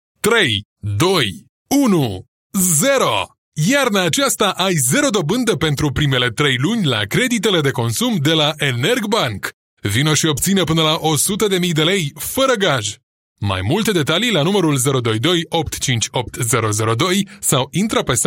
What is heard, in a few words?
romanian promos